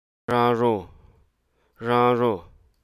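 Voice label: Hruso Aka; cricket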